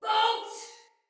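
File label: See Player Soldier Female; Barks Battle; Sinecure Soldier Female B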